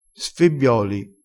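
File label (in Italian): "sfibbioli", vocabolario dialettale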